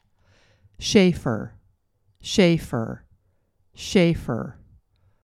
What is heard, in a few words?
"Shaffer", pronunciation